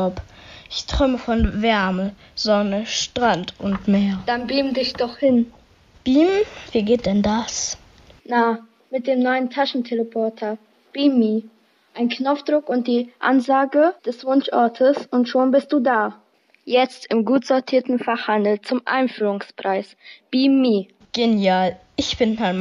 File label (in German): Werbung; earsinnig hoeren 2020; Lichtenfels